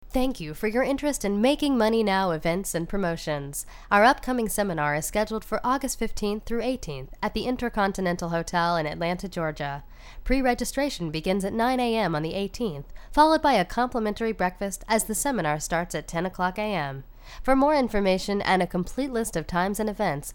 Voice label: Announcer